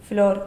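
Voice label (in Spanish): "flor", Spanish, Sevilla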